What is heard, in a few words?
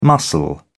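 "mussel", British English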